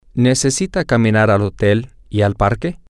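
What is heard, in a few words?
Spanish, male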